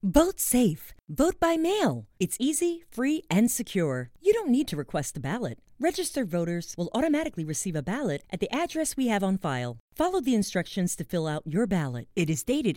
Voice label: Elections Ad; Maryland